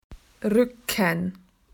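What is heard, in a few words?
"back", Australian English; PictureDictionary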